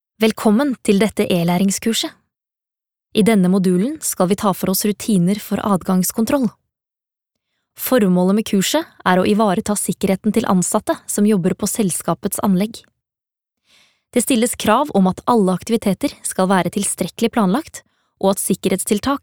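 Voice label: no elearning, Norwegian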